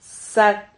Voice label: "sad", silabas

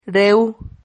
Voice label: "reu", Silabas

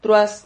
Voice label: "truas", Silabas

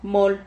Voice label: "mol", Silabas

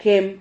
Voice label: "jem", Silabas

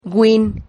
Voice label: "güin", Silabas

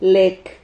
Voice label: "lec", Silabas

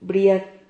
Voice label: "briad", Silabas

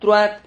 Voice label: "truad", Silabas

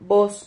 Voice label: "vos", Silabas

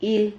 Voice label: "hil", Silabas

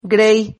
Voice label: "grei", Silabas

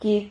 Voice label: "quid", Silabas

